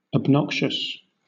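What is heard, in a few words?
"obnoxious", British English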